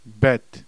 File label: bet, heb